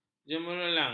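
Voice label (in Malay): "jemerelang", Malay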